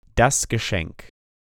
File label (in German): "das Geschenk", German